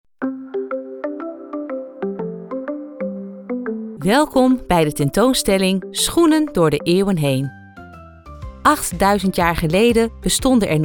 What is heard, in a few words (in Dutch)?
Museumtour, voice-over